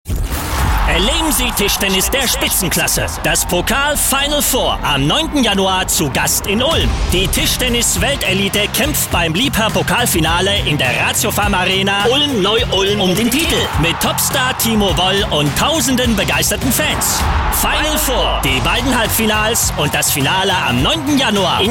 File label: commercial; Liebherr